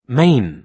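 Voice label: "main", British English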